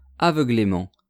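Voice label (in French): "aveuglement", French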